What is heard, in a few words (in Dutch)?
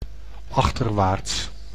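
"achterwaarts", Dutch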